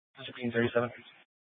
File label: Dispatch; Scottsdale